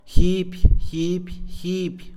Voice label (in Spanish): "comprar", Mixe, hombre; Posesión